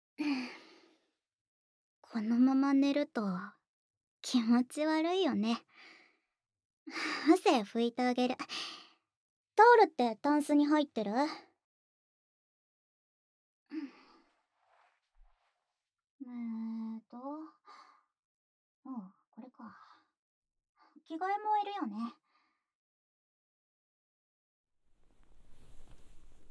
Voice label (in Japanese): ASMR; おやすみキスと手コキ添い寝